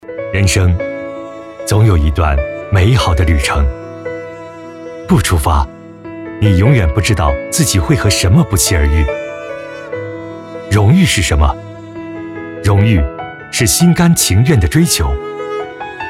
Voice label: 走心旁白积极

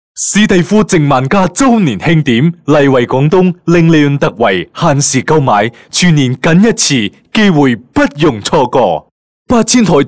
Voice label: 粤语促销; 史蒂夫净万家

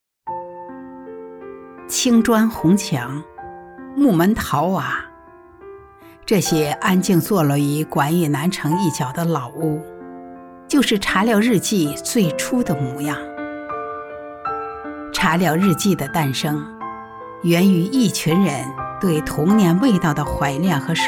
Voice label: 讲述感

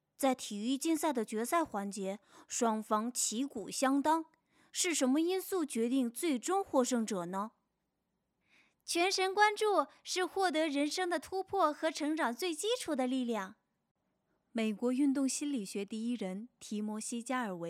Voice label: 男童女童成人

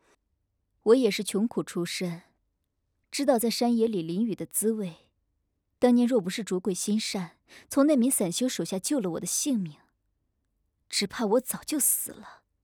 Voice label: 御姐

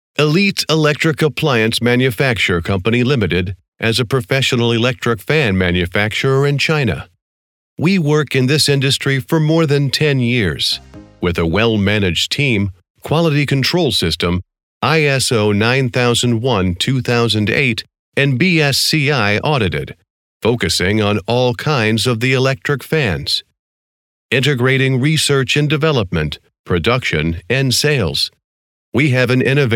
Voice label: 外籍美式英语温和友好公司宣传

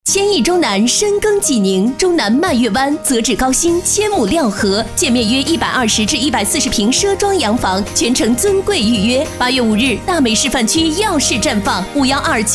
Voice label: 广告 气势 激情; 广告气势激情地产

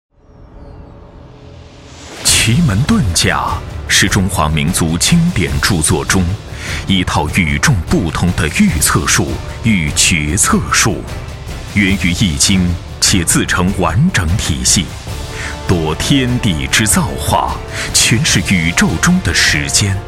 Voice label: 大气; 奇门遁甲

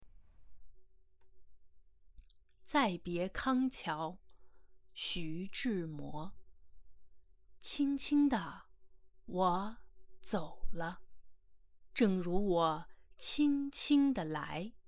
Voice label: 女配音,青年（18-35）,普通话,有声读物配音,中音域且声线稳定

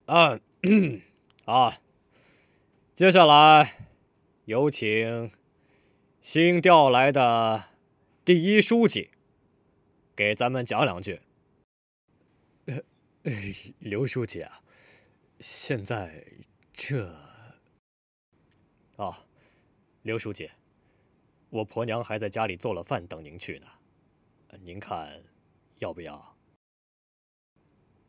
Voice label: 干音

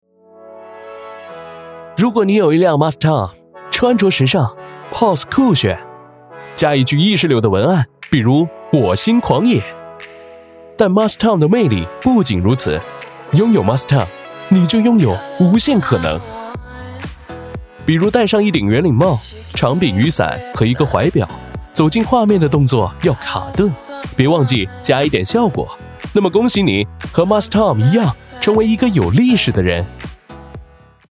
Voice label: 国语 广告

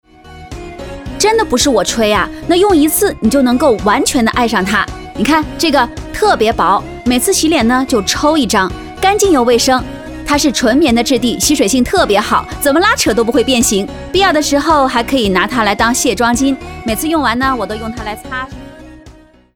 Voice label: 素人带货

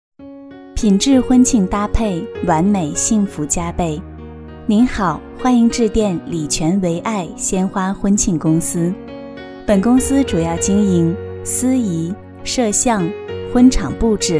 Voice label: 彩铃女

